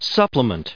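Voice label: "supplement", US English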